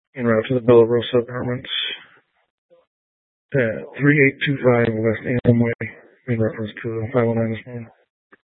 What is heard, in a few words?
Sheriffs Office, scanner